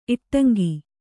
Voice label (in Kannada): "ಇಟ್ಟಂಗಿ", Kannada